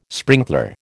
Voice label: "sprinkler", American